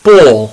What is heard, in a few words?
"ball", English; sportovni vybaveni